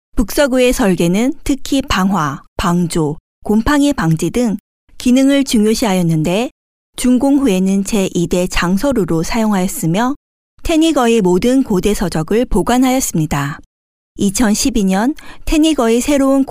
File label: FEMALE KOREAN